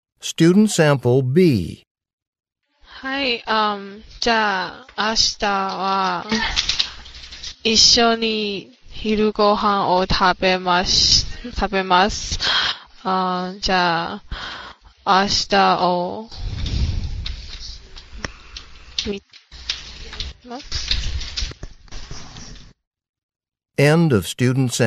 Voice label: japanese conversation

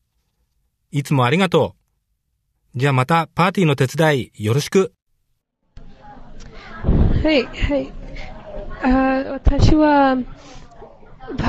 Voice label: japanese conversation

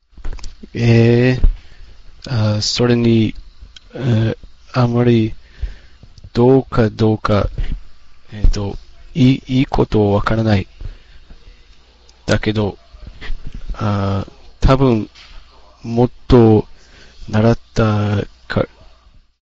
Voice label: Japanese Return Telephone Call

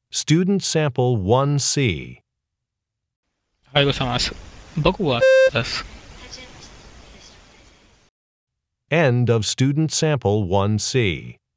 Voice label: japanese conversation

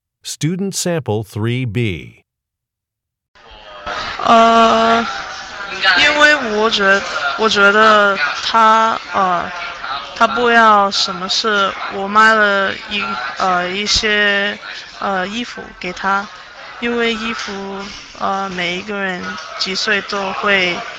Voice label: chinese conversation

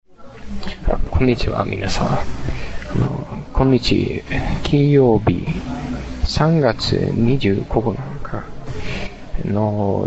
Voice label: Japanese School Announcement

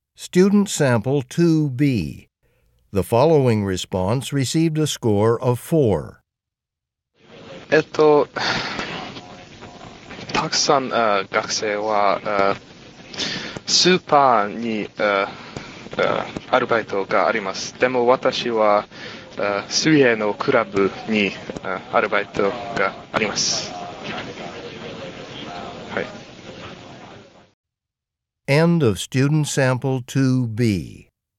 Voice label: japanese convo

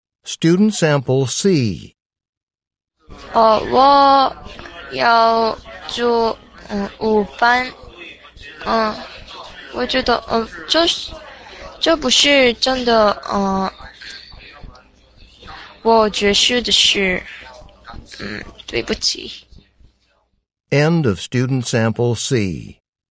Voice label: chinese conversation

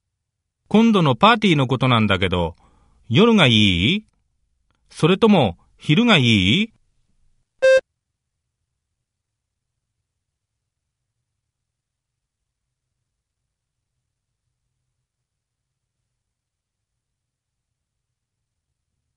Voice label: japanese conversation

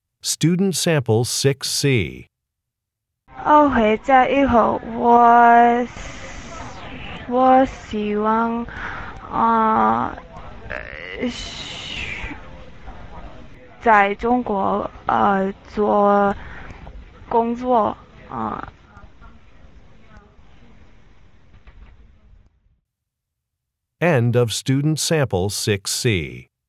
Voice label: chinese conversation

